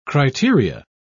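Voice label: "criteria", British English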